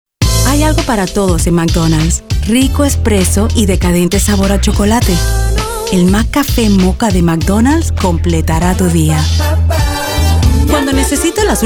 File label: languages spanish